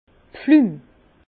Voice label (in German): "pflumm", Bas Rh; vin; pruneau